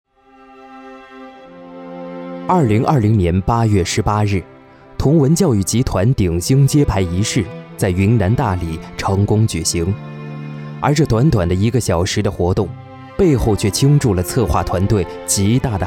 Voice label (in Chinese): 纪录片; 纪录片同文教育揭幕